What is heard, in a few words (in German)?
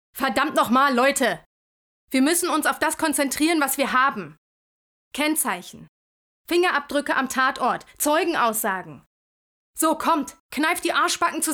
Polizistin streng